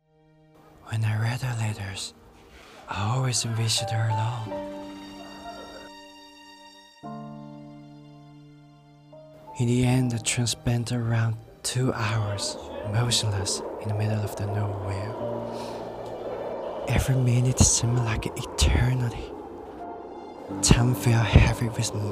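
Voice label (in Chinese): 角色配音悲伤; 贵树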